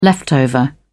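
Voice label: "leftover", British English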